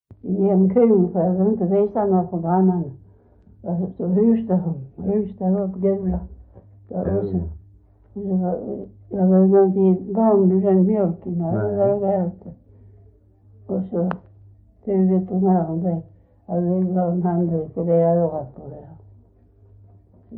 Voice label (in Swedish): S Svedala, dialekter; Folkminnen